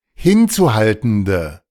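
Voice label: "hinzuhaltende", German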